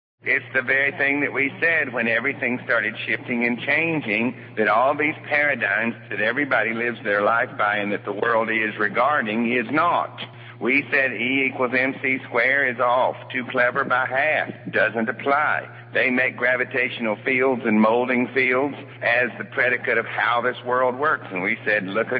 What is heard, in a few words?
rant